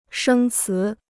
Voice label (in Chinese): "生词", Chinese